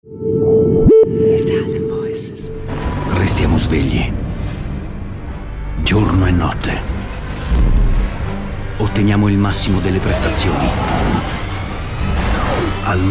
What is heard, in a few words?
ITALIAN VOICES Dark Grave